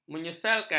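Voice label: "menyesalkan", Malay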